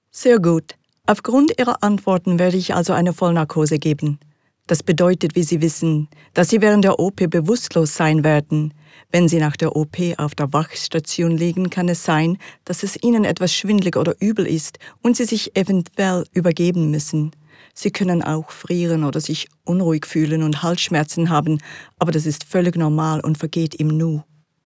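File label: Swiss German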